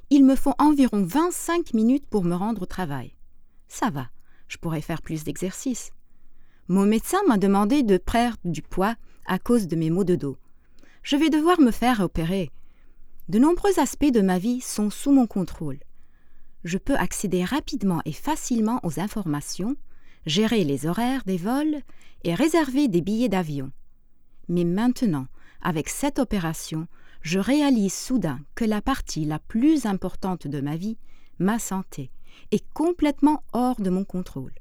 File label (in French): French